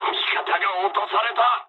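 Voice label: aircraft destroyed mood med, speech japanese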